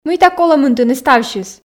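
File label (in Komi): мыйта колӧ мынтыны ставсьыс, Komi, female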